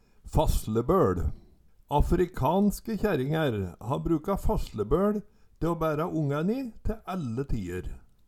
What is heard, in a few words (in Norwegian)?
"faslebøL", Numedalsmål